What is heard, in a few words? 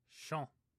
"shon", Omaha